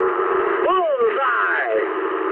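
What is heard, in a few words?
English bomb success mood high; War Thunder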